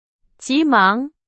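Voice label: Chinese, vocabulary; hsk4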